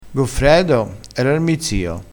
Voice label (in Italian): "r", vocabolario dialettale